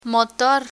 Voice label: "motor", Spanish